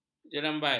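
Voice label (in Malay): "jerambai", Malay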